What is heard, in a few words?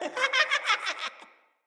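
Death Corrupted Sprouts, en; Battle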